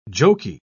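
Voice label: "joky", British English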